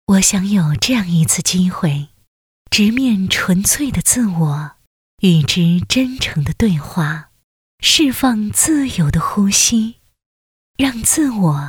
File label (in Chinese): 女 广告 家居; 来斯奥家居